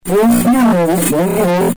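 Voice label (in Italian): "cercavo", Italian; Cercando…chi era?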